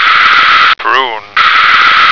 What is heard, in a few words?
"prune", American